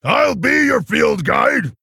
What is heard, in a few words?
Male Orc Play